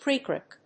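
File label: "pre-crack", English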